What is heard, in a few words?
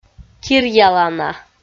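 "киръялана", Komi, female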